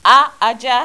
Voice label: "AJA", Yoruba; ALPHABET RHYME

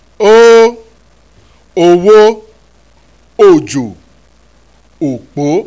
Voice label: "o ooo", Yoruba, oral vowels; owo-ojo-opo

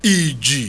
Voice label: "iji", Yoruba; igi-iji-idi

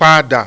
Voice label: "pada", Yoruba, mid low tone; Nine Possible Combinations; Initial Mid tone combinations

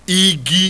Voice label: "igi", Yoruba; igi-iji-idi

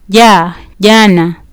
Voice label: "jana", Kiswahili

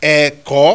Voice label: "eko", Yoruba; kakaki keke eko

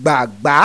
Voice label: "gbagba", Yoruba; CONSONANTS; gbogbo-gbagba-igba

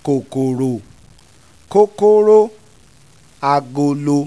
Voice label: KOKORO KOKORO AGOLO, Yoruba; TONES

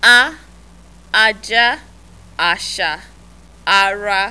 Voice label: "a aaa", Yoruba, oral vowels; aja-asa-ara

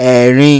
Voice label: "Erin", Yoruba

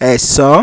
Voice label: "Esan", Yoruba

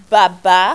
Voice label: "baba", Yoruba; CONSONANTS; baba-bata-obo

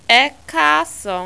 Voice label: "E kaasan", Yoruba

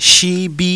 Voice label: "sibi", Yoruba; CONSONANTS; sibi-sokoto-ise

